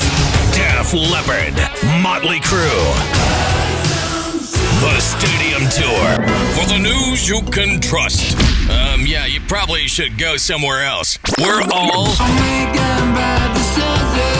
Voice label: radio imaging men rock

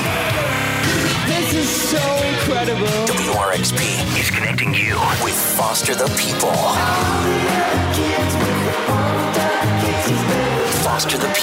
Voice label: radio rock, radio imaging

